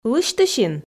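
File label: "лысьтысин", Komi, female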